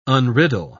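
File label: "unriddle", English, British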